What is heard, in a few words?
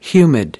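"humid", English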